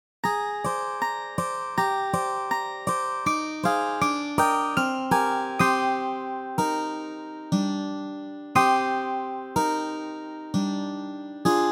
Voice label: Inside Poem-Music; Inside + Sea Night